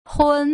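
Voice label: "hun", Putonghua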